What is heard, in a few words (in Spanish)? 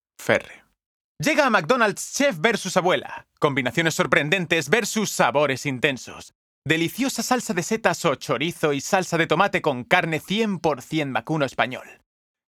Demo Publicidad